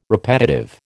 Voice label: "repetitive", American